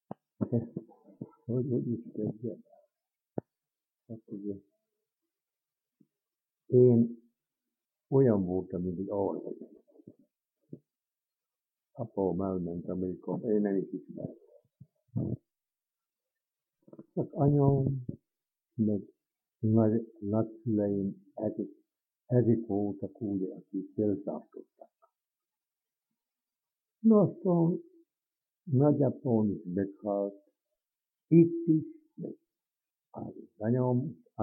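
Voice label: dialect collection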